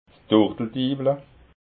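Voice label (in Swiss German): "turteldiwla", Alsatian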